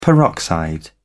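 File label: "peroxide", British English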